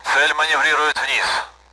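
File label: Speech, Russian, AWACS; target is maneuvering down; DCS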